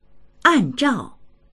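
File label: "an zhao", Mandarin; 按照; ～によって、～にもとづいて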